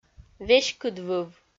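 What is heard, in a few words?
"веськыдвыв", Komi, female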